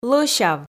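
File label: "лӧсяв", Komi, female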